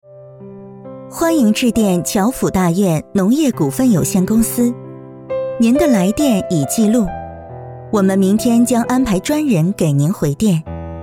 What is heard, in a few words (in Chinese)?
彩铃 温柔 甜美